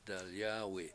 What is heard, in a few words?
"dalyAAwi7", Washo; Black